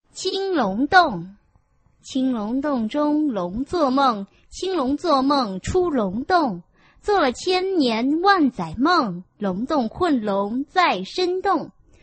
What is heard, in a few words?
chinese tongue twister